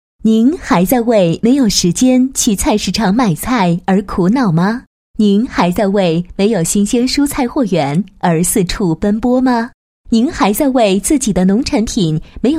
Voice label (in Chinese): 女 宣传广告 网上农贸市场宣传, 配音案例; 嘉泰生活